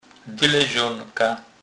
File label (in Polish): "dylezonka", kurpiow